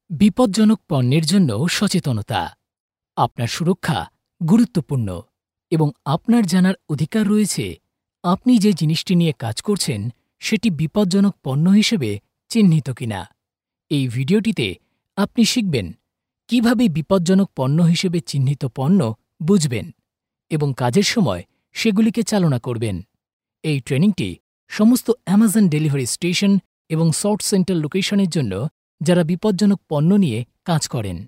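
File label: Bengali, male, Narration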